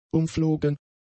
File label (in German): "umflogen", German; adjectives